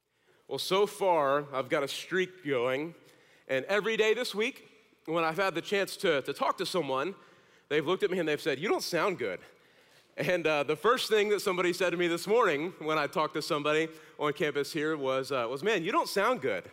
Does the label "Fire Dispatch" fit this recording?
No